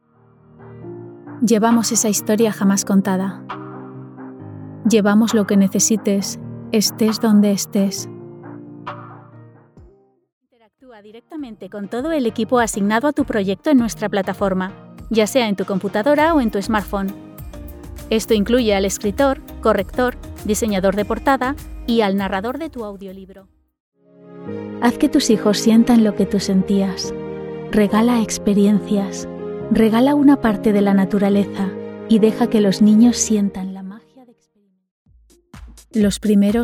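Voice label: Spanish Spain, Commercial; GoLocalise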